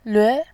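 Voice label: "lve", Mandarin, tone 2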